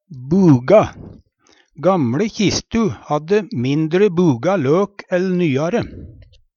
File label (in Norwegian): "buga", Numedalsmål